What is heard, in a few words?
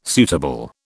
"suitable", British